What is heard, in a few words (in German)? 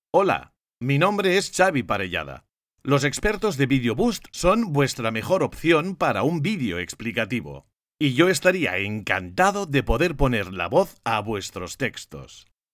Spanisch